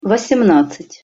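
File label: "vosemnadcat", Russian pronunciation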